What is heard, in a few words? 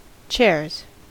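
"chairs", US English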